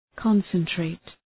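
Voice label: "concentrate", English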